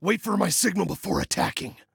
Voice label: Attack Wait, Male; Male 01